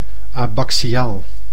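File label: "abaxiaal", Dutch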